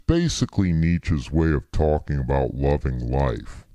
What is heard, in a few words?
SLOW